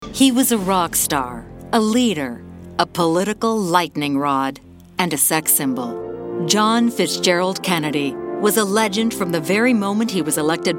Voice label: Confident Knowledgeable; JFK